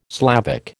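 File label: "Slavic", American English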